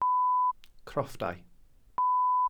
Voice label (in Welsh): "crofftau", glossary